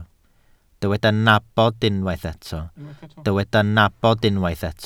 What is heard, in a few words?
"nabod", Welsh